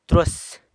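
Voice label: "trus", (a male's) underpants, San Lucas Quiaviní Zapotec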